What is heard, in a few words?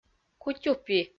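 "кутюпи", Komi, female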